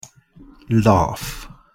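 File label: "laugh", British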